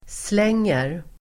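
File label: "slänger", Swedish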